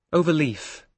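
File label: "overleaf", British English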